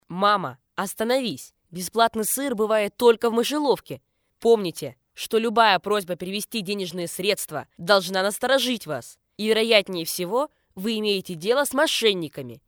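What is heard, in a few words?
13 years Russian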